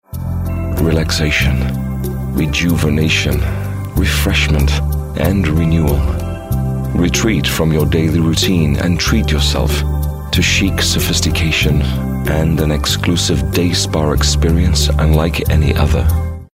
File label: Sophisticated